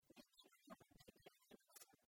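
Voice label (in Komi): ми эгӧ на лыддьӧй тайӧ небӧгсӧ, Komi, female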